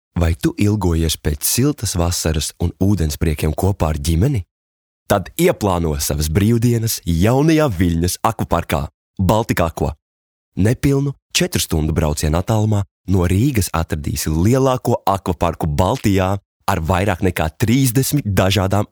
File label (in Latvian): Reklāma